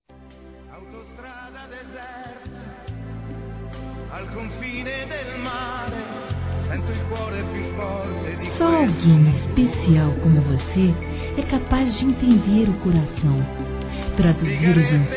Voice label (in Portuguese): Adorei a Surpresa Feminino, Telemensagens Românticas